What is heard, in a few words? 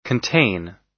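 "contain", American English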